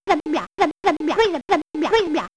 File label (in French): Voix prophétesse